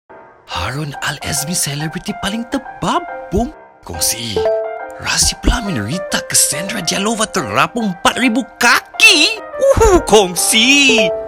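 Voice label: public service announcement; VOTips; KONGSI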